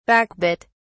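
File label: "backbit", British English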